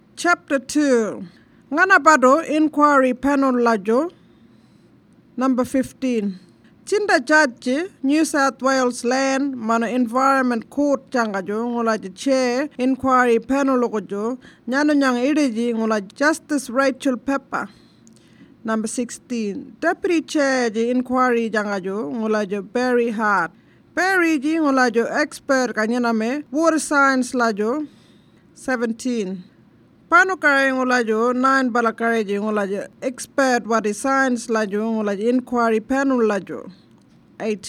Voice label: Warlpiri; Fracking